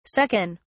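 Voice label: "suck in", British English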